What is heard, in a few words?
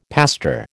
"pastor", American